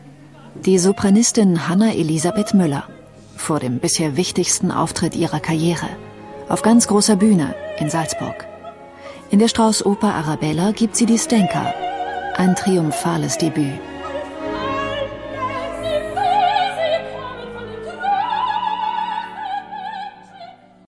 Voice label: Kommentar Neutral

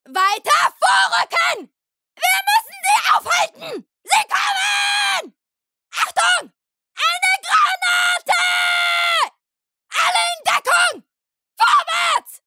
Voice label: Computerspiel Aggressiv; Fremdtake

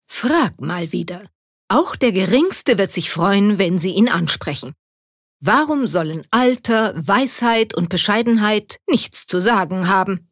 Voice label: Werbung Elitaer

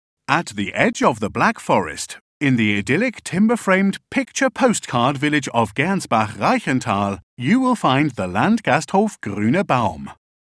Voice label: Eng UK Kommentar Dynamisch

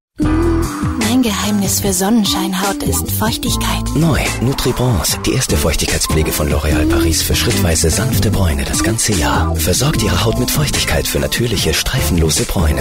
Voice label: Werbung Sinnlich

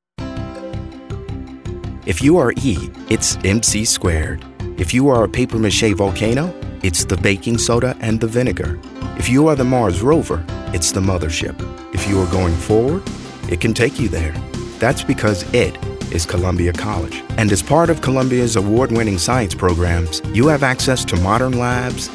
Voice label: Sanft

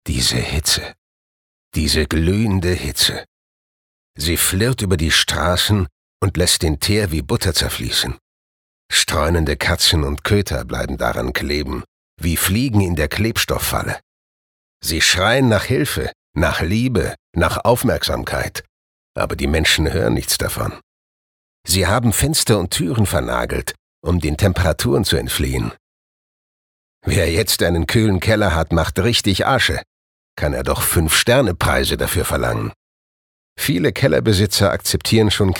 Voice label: Schauspiel, Cool

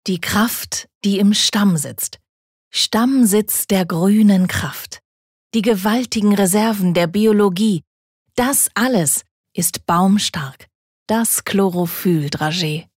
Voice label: Werbung Dynamisch

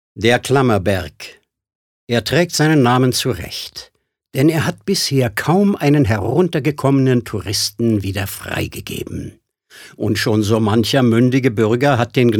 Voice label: Kommentar Neutral

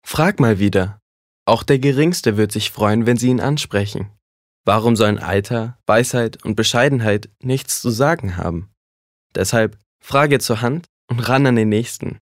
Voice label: Werbung Sanft

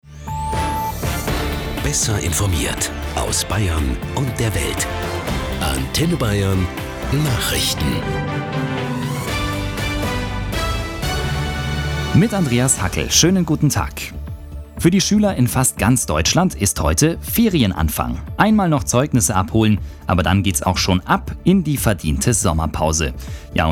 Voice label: Freundlich

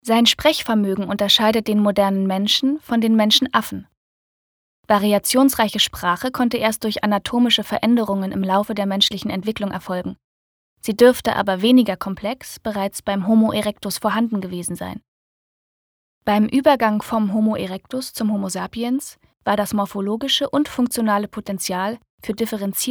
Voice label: Sprache Neutral

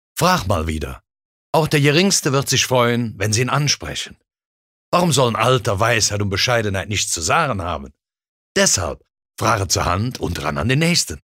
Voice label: Dialekt Rheinlaendisch

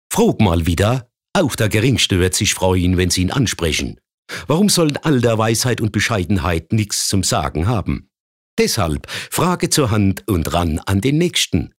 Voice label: Dialekt Pfaelzisch

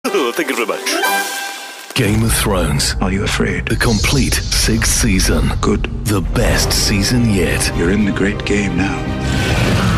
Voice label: Eng UK Fremdtake Dynamisch

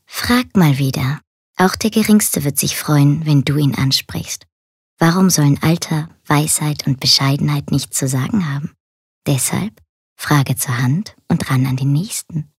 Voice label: Werbung Sanft

